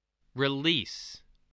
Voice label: "release", spelling voice

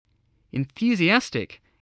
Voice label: "enthusiastic", voice, english

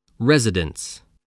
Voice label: "residence", English

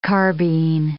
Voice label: "carbine", English

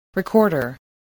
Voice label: "recorder", voice, english

